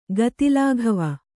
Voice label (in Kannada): "ಗತಿಲಾಘವ", Kannada